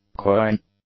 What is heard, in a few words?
"coin", English; nouns